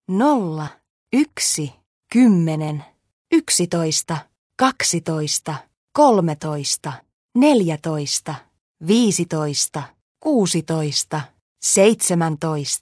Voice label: Dealer Voice, sk, Roulette; real Dealer European Roulette Vintage; fi